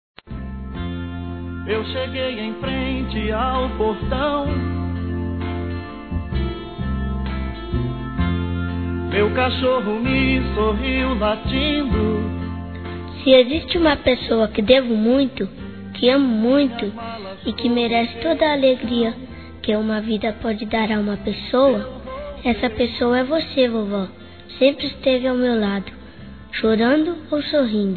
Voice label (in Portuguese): Aniversário Avó Infantil MASCULINO; Telemensagens 2017; Aniversários